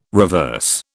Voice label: "reverse", British